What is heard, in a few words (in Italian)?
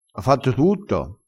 "si na semprice", crespinese